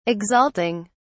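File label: "exalting", British English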